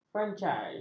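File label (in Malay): "francais", Malay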